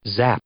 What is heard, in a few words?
"zap", verb